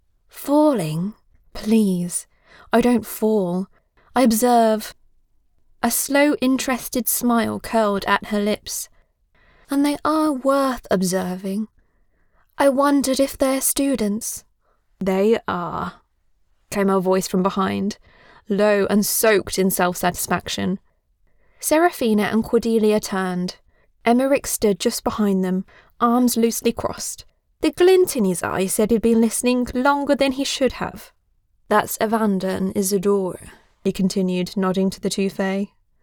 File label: British Female Fantasy with character voices